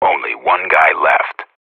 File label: radio bot kill count, vo professional